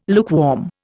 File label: "lukewarm", Indian